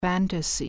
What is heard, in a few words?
"FANTASY", german